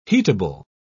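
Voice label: "heatable", British English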